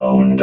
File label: "owned", vox robot